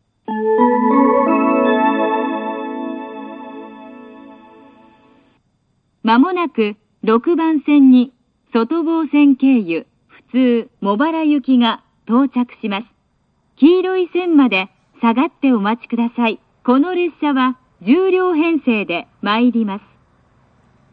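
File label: platform 3 approaching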